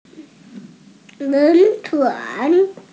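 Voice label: "steamship", Mandarin Chinese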